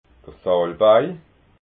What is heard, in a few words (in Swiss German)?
"salbei", alsace